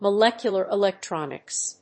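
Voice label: "molecular electronics", English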